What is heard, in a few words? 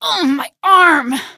my arm, female; Source Engine; video game; npc